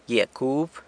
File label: "gyia cub", San Lucas Quiaviní Zapotec; marigold